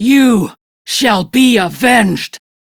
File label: Crew Died, Female; Dialog; en; Warframe; Railjack Crew; Steel Meridian